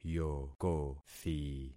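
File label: "yokothi", concatenated syllables; Affixing experiment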